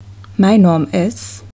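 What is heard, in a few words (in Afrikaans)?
"my naam is", Afrikaans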